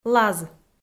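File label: "лаз" Komi, female; kpv audio dict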